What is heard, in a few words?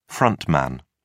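"front man", British English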